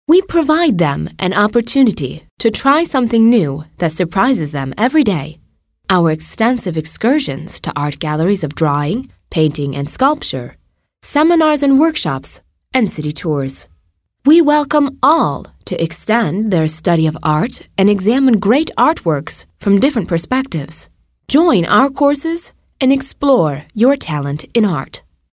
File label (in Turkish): İNGİLİZCE